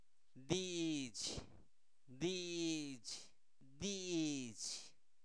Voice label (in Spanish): Zapoteco Albarradas, santo domingo albarradas; INALI; humano; palabra